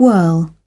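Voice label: "Whirling", British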